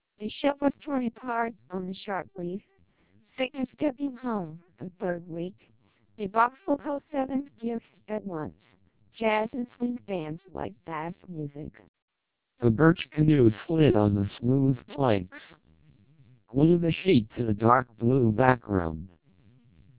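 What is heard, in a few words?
TWELP 400 bps Vocoder, American speech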